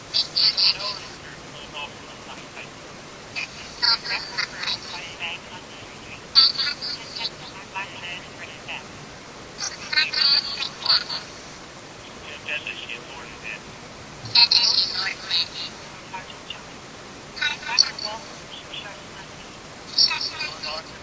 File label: jibberish